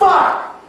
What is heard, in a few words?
"fuck", tourettes; Voice; expletives